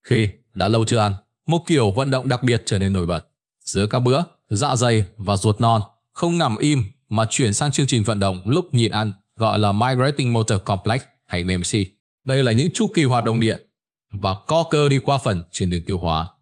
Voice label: narration